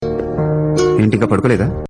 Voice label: Good Night Message in Telugu